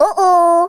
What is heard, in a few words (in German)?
"uhoh", German; speech